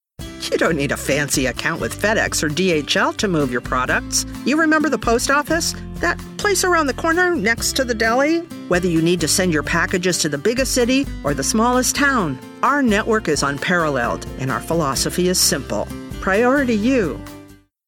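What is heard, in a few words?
Commercial; US Post Office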